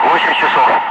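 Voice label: Russian speech, Ka-50 Wingman; DCS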